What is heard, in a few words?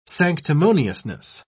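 "sanctimoniousness", British English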